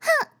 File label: attack, RPG Voice; RPG Voice Starter Pack